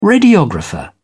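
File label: "radiographer", British English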